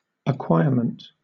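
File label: "acquirement", British English; pronunciation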